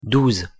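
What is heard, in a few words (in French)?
"douze" French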